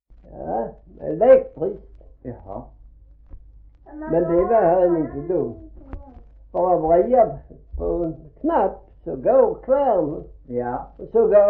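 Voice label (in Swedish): Gråmanstorp Skåne, dialekter; Folkminnen; Svenska sagor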